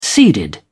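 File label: "seeded", British English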